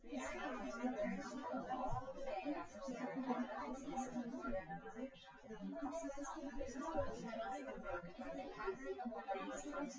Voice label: female synth conv 250ms 5cm sim; SiSEC08; SiSEC underdetermined

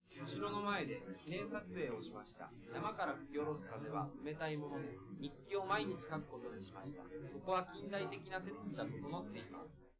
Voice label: male live rec 5cm sim; SASSEC07

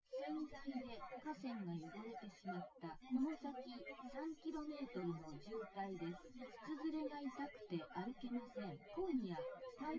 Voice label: female synth conv 130ms 1m; SiSEC08; SiSEC underdetermined

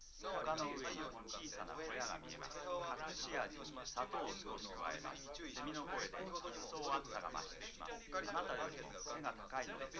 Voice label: male liverec 250ms 5cm

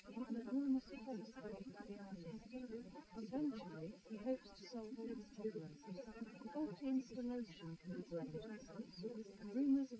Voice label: female liverec; SiSEC11; underdetermined

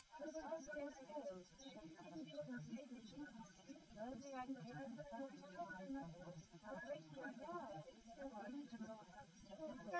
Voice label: female liverec; SiSEC11; underdetermined

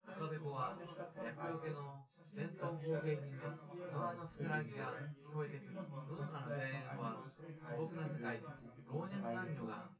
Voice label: male synth conv

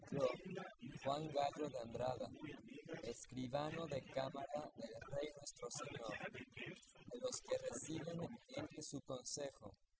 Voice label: male inst sim; SASSEC07

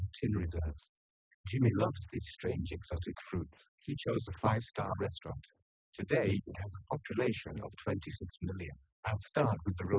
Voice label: male inst; SiSEC10; underdetermined

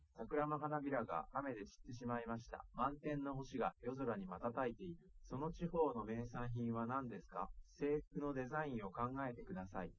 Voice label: male liverec

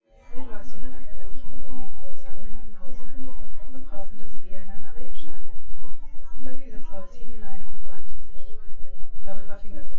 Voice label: female synth conv 5cm; SASSEC07

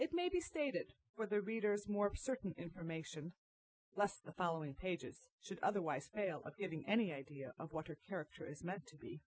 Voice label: female inst sim; SiSEC08; SiSEC underdetermined

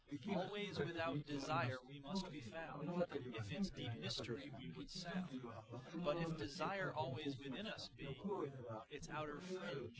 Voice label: male4 inst sim

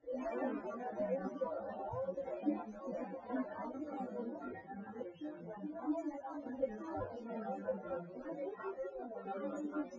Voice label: female synthconv

